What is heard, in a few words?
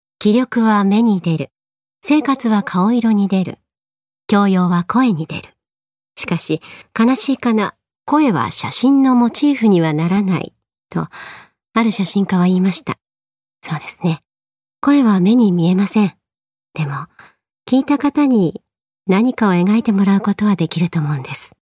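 Japanese, female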